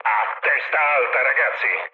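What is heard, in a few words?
Italian near waypoint mood high, speech; War Thunder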